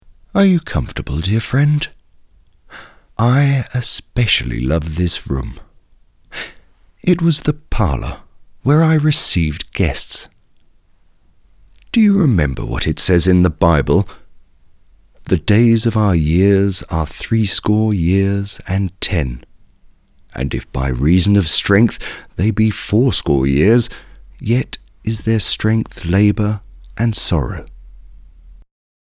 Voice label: character voice; Heinrich Schütz